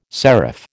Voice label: "serif", American